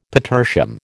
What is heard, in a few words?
"petersham", American